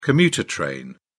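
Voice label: "commuter train", British English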